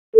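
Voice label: Fire Department Alert, p25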